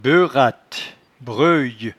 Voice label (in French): "beuratte", patois jura